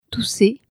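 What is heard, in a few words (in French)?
"tousser" French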